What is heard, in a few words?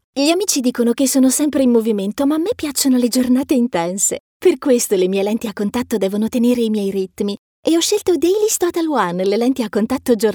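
Italian, female, voice over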